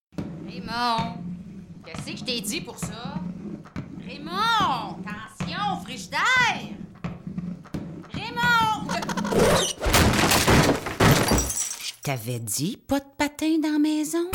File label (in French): Voix humoristique, impatiente, autoritaire, menaçante, PUB; PUB/Voix humoristique, impatiente, autoritaire, menaçante; patins; Sports Experts